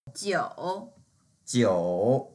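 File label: "jiu3", Mandarin Chinese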